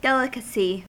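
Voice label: "delicacy", US English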